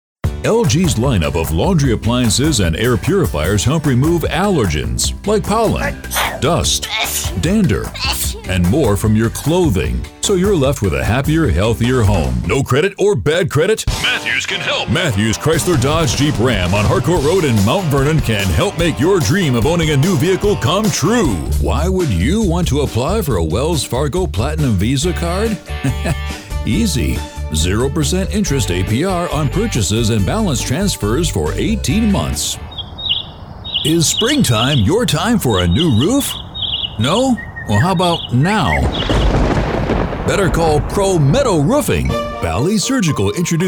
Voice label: Commercial; male voice actor